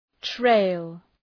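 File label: "trail", English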